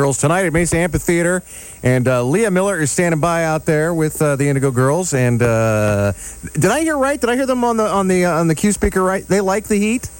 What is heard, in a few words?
announcer